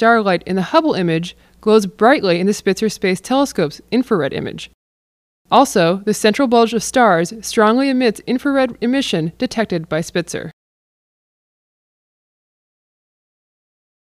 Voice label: Sombrero narration